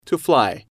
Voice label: to fly, English